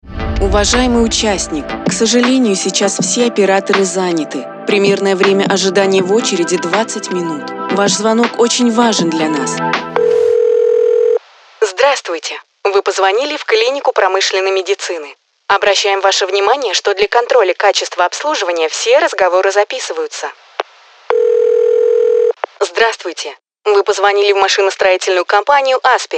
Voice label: RUS